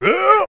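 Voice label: "huh", vocal sample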